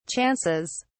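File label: "chances", British English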